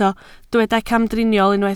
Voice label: "camdriniol", Welsh

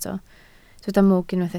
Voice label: "mwg", Welsh

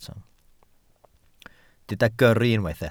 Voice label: "gyrru", Welsh

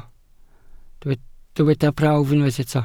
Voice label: "prawf", Welsh

